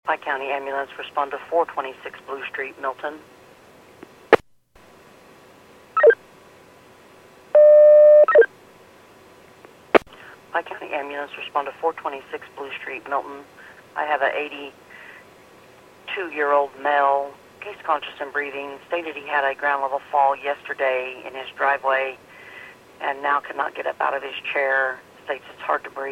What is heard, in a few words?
PAGING